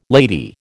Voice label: "lady", American

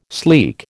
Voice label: "sleek", American

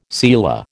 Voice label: "selah", American

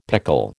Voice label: "pickle", American

